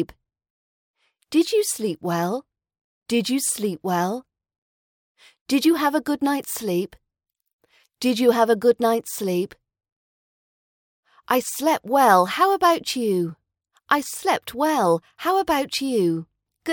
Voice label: after getting up phrases rp british accent pronunciation practice